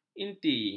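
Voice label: "inti", Malay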